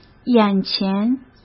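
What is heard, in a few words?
"眼前", Mandarin Chinese; chinese-flashcards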